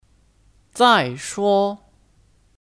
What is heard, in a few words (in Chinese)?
"再说", Zài shuō